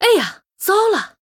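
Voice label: Female, Chinese; Murloc; Oops; zh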